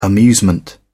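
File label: "amusement", British English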